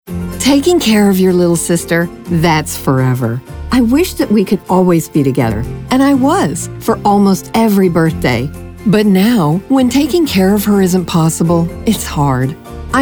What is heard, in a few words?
vo commercial